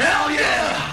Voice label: hell yeah, two guys

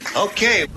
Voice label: "okay", expletives

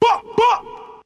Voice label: "buck buck", ooh ahh

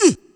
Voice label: "uh", male

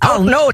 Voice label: "oh no", male; ooh ahh

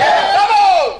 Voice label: "hello", shouted; Voice; introductions; hello hi hey

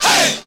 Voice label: "hey" male

